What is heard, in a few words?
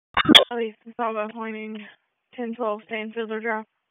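Raleigh Police Southeast District Dispatch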